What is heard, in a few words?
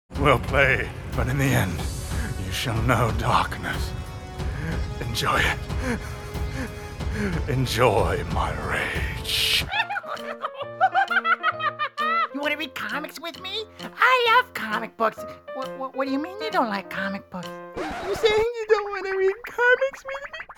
Animation, Male voices